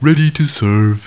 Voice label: "ready", peasant; humans; war2